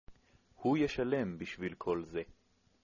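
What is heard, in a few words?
pay, Hebrew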